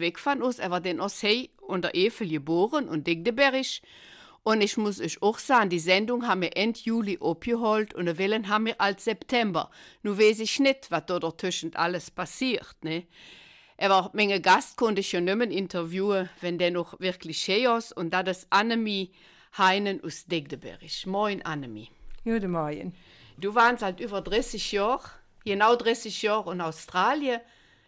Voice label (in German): Eifeler Mundart